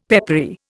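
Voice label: "peppery", Indian English; Dictionary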